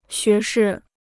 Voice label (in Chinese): "学士", Chinese